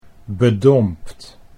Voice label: "bedompt", Dutch

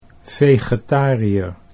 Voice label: "vegetariër", Dutch

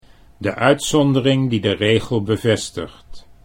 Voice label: "uitzondering regel", Dutch; 'The exception that confirms the rule'

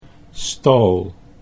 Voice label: "stal", Dutch

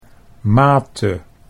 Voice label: "mate", Dutch

